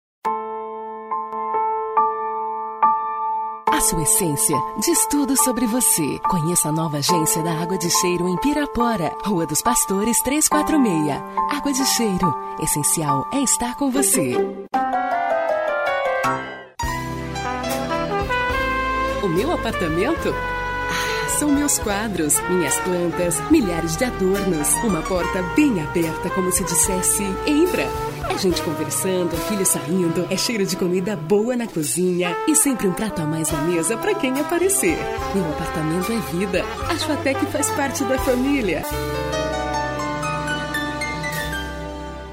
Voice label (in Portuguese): locutora; vozes feminina